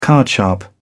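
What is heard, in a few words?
"card sharp", British English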